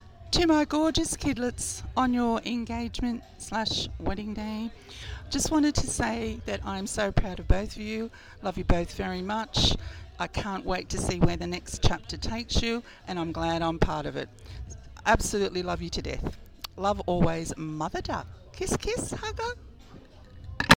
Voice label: audio guest book voicemail